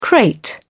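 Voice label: "crate", English, British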